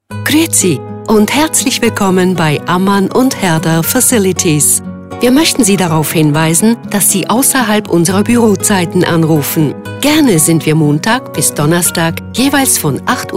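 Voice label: telefonansagen sprecher, Swiss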